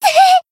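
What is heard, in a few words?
Voice damaged, Japanese; tsukiha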